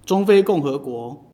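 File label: central african republic, Taiwan Mandarin; gcompris-data; geography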